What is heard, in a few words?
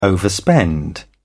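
"overspend", English, British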